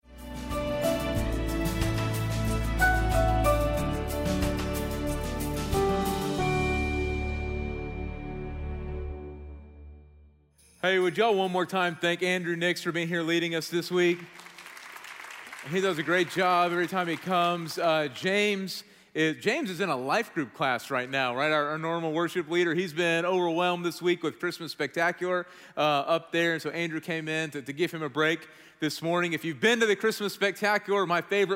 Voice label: Sermon English